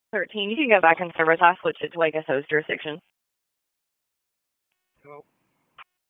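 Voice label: Raleigh Police Southeast District Dispatch, p25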